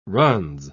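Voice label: "runs", British English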